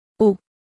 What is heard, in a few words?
Phoneme Female; Commonscript; Lynguistykon